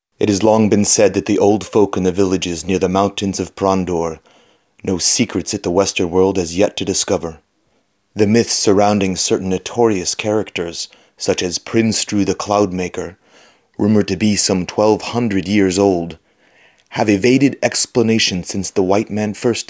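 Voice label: male English documentary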